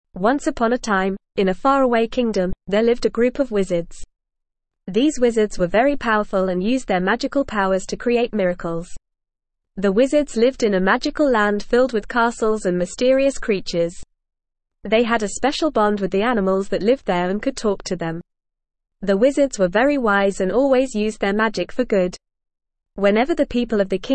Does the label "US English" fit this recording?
No